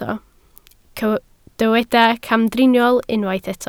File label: "camdriniol", Welsh